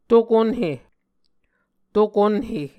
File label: "to-konhe", muscogee